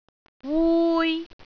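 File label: "wui3", Cantonese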